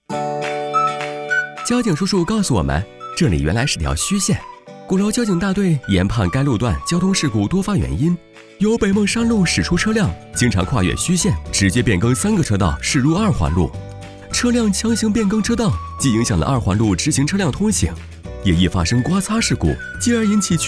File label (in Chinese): 动画幽默; 飞碟; 交通